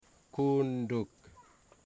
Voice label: "ear", Wamut